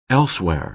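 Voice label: "elsewhere", American English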